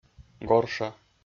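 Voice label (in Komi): "горша", Komi, male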